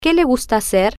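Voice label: "Que Le Gusta Hacer", Spanish, female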